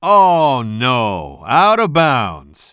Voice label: commentator